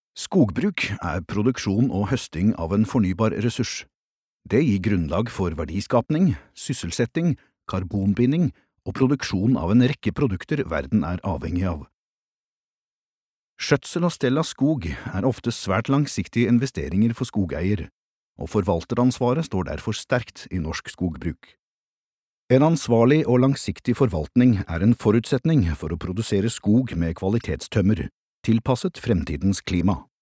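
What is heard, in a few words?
Norwegian informative, norwegian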